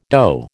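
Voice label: "dough", American English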